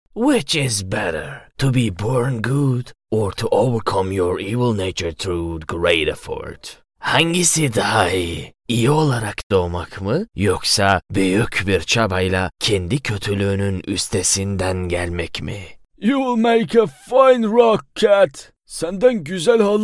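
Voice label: character, voiceover; KARAKTER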